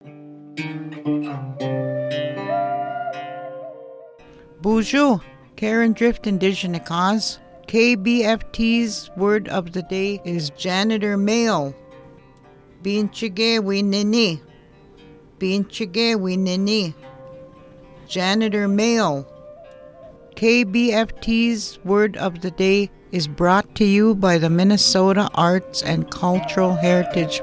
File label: janitor male